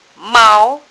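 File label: "mao2", Mandarin Chinese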